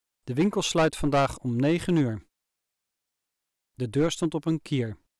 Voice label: male Dutch Amplitude Clip; Speech Quality Examples